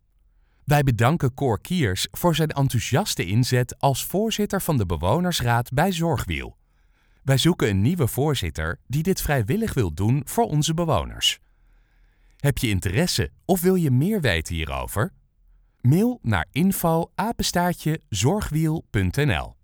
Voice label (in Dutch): Voiceover